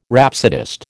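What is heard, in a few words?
"rhapsodist", American English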